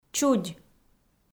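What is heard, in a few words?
"чудь", Komi, female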